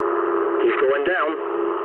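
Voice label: aircraft destroyed mood low, speech English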